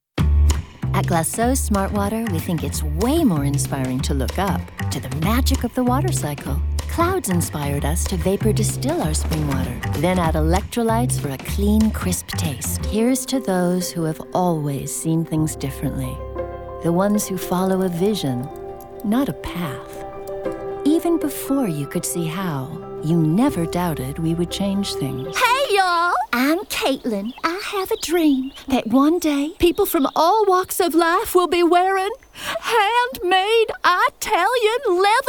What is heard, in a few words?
montage commercial